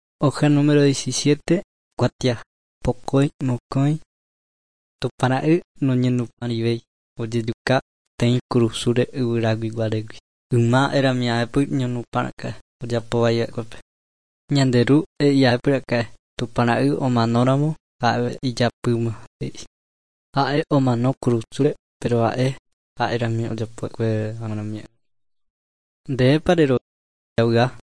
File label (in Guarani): guarani mbya; good news and words of life; bible